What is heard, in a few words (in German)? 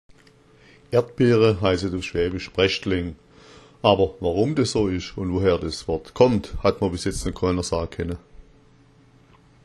breschtleng, schwaebisch; Erdbeeren